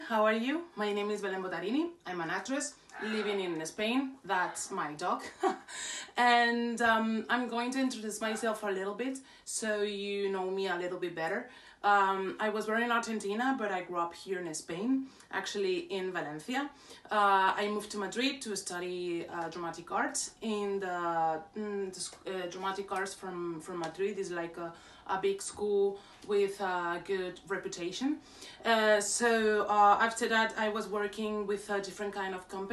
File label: presentation